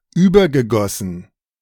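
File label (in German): "übergegossen", German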